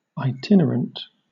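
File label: "itinerant", British English; pronunciations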